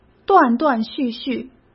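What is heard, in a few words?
"断断续续", Mandarin Chinese; hsk; chinese flashcards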